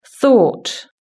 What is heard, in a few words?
"thought", british english